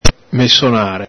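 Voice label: "mesonare", Venetian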